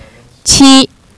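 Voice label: "seven", Chinese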